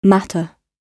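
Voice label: "matter", British English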